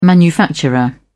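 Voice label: "manufacturer", English, British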